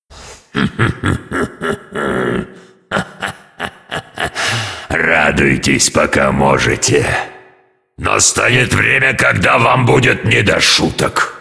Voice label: cinematic voice, ru; lineage2